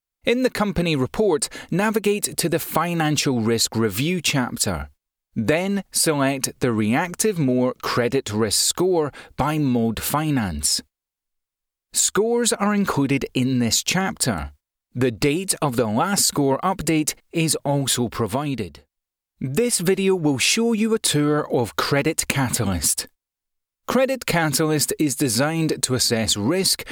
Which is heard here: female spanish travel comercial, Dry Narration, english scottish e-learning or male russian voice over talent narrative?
english scottish e-learning